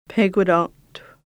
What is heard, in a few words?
"longhouse", Lushootseed